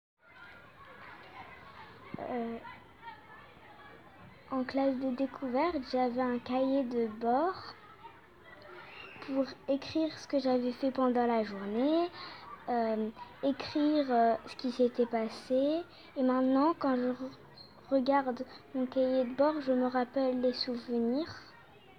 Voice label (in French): carnet de bord temoignage eleve; production ecrit classe de decouvertes